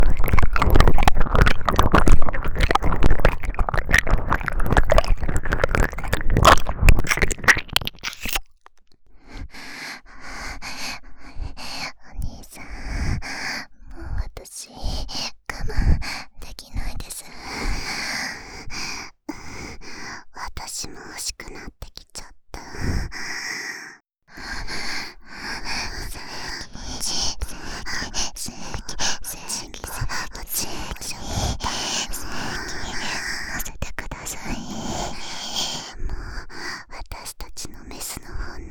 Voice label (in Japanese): 淫語×耳舐め×精液おねだり